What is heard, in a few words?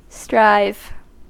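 "strive", US English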